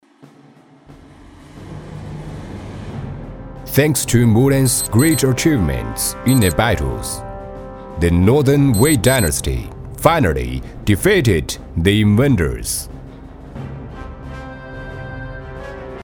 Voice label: 男 英文旁白; 木兰

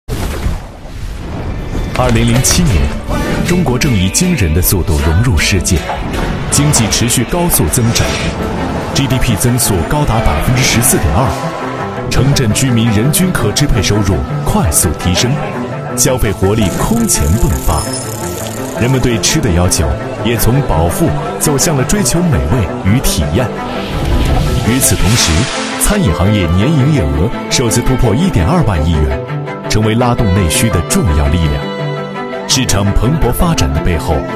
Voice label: 男 宣传片大气叙事; 阿姆源18周年

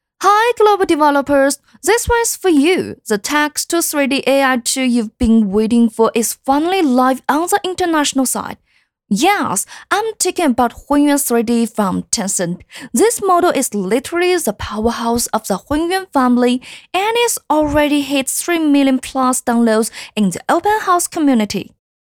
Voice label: 女 英语自媒体类

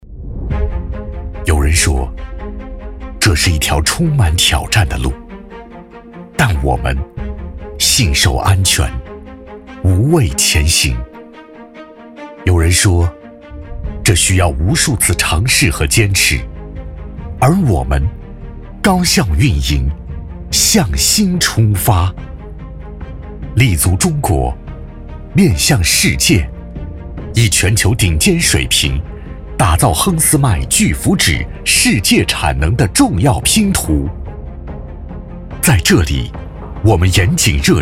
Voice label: 男 宣传片 大气走心企业宣传; 漕泾工厂

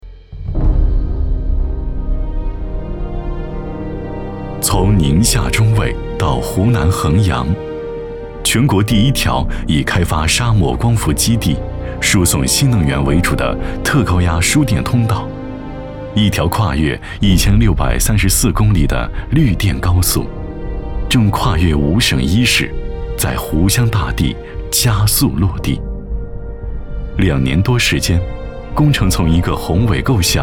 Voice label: 男 专题纪录; 宁电入湘

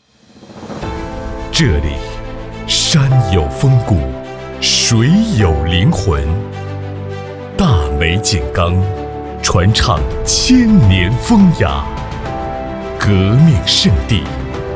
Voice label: 男 专题; 世界生物圈保护区

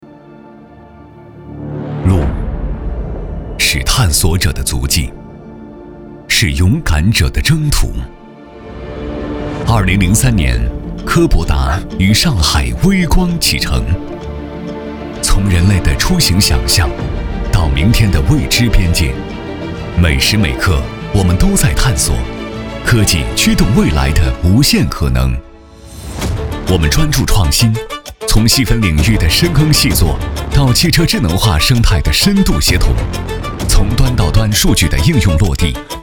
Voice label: 男 宣传片; 科博达

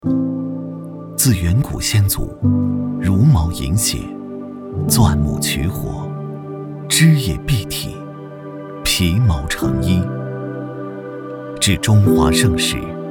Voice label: 男 宣传 走心

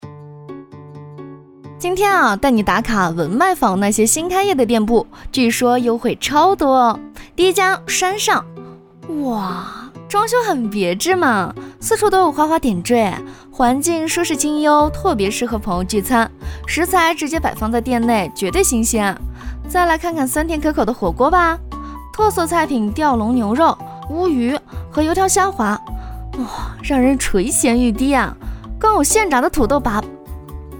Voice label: 女 素人 探店感觉

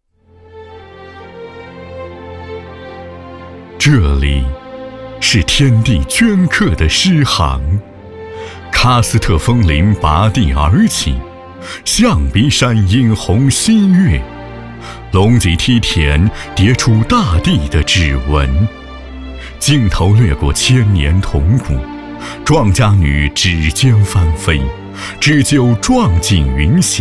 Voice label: 男 文旅宣传 广西文旅宣传

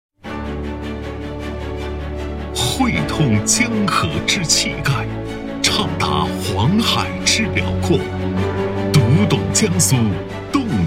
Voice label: 男 宣传片; 江苏新闻频道宣传片

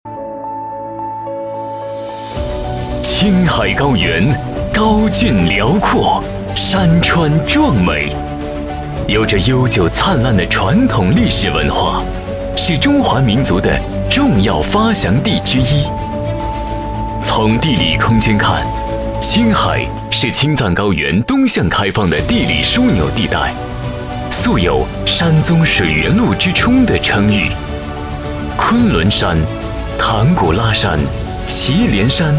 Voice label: 男 大气气势 宣传片; 青海宣传片

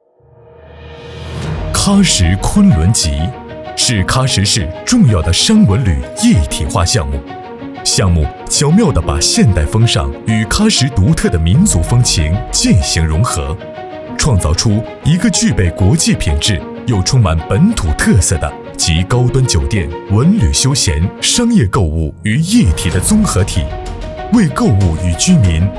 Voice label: 男 专题; 喀什昆仑集

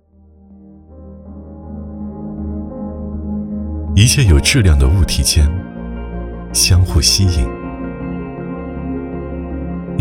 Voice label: 男 走心; 上海隧道; 地心引力

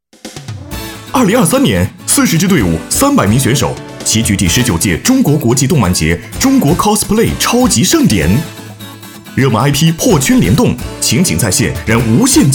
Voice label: 男 广告 年轻激情活力; 赛事盛典

